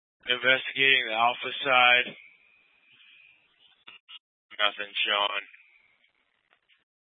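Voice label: Incident Tac 1, Scanner audio recording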